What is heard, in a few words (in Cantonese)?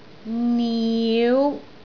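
"niu5", Cantonese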